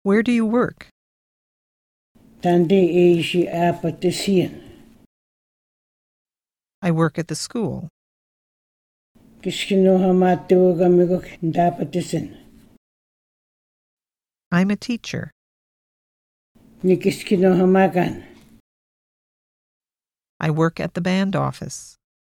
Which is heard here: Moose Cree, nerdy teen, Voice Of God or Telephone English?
Moose Cree